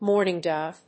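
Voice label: "mourning dove", English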